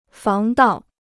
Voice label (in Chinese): "防盗", Chinese